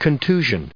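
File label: "contusion", US English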